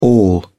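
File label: "all", English, British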